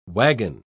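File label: "waggon", British English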